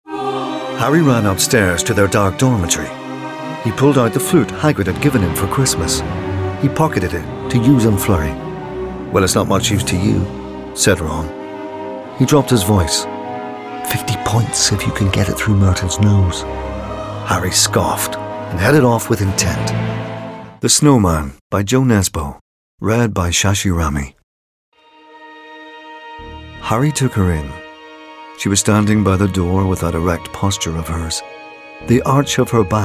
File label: Audiobook